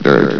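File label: "dirt", male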